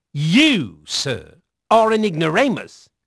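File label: English male; Pirates Of The Caribbean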